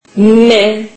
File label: turkish alphabet m